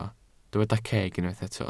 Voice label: "ceg", Welsh

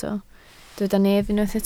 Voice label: "nef", Welsh

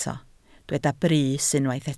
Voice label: "brys", welsh

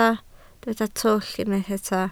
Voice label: "twll", Welsh

